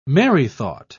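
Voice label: "merrythought", British English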